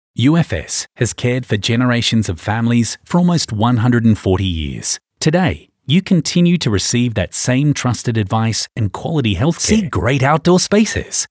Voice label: Soft Sell